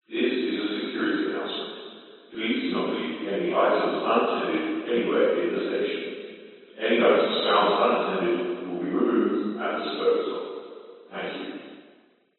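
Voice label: public places train station security announcement male english please do not leave unattended; unattended items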